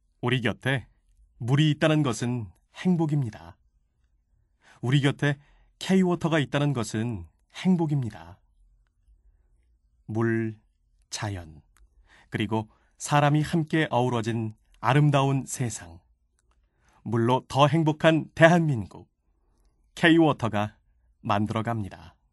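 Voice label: Korean, male, various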